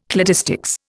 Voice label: "cladistics", Indian

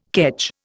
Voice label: "kedge", Indian

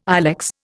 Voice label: "ilex", Indian

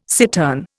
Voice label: "cittern", Indian

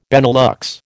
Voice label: "Benelux", American

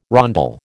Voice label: "rondel", American

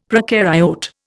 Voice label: "procaryote", Indian; Dictionary

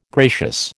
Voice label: "gracious", American

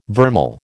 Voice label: "vermeil", American

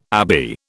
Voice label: "abbey", British

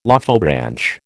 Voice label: "Lophobranch", American

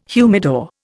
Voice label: "humidor", Indian

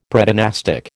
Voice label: "Predynastic", American

